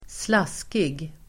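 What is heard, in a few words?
"slaskig", Swedish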